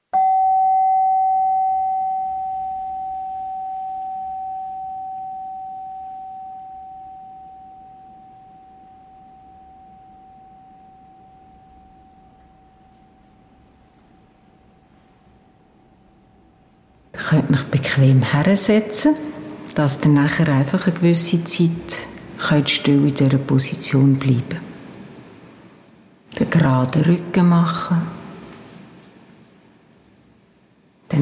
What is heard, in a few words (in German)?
Meditation fuer Kinder und Erwachsene Mundart